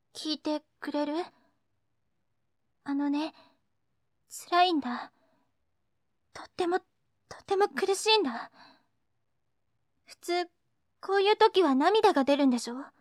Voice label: girl; 悲しい感じの台詞。女の子。; 普通の女の子